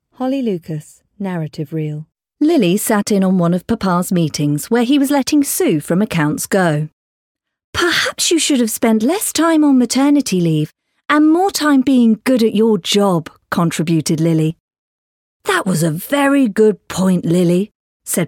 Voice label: Narrative Reel